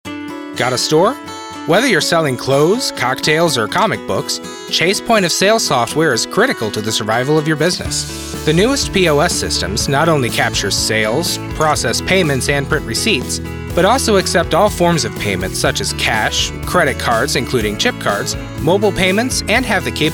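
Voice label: Explainer, male voice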